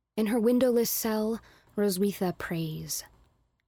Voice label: Narration